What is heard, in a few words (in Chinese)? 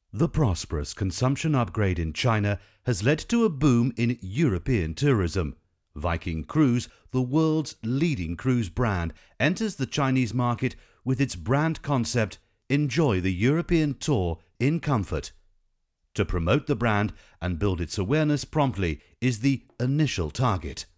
游轮英文配音; 维京游轮